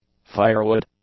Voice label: "firewood", English; nouns

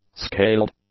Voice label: "scaled", English; adjectives

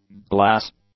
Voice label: "glass", English; nouns

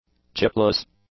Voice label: "chipless", English; adjectives

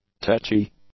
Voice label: "tetchy", English; adjectives

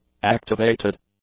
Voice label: "activated", English; adjectives

